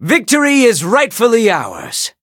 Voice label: victory en; cookie run